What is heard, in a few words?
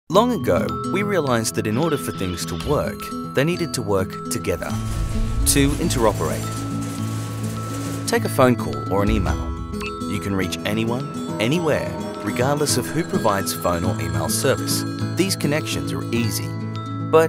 Explainer